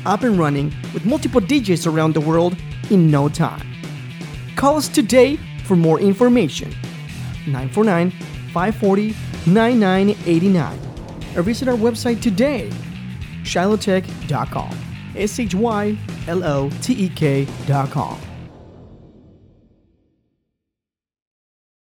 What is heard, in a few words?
Hosting